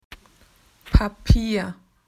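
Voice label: "paper", Australian English; PictureDictionary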